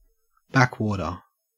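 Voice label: "backwater" Australian English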